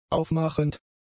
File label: "aufmachend", German; adjectives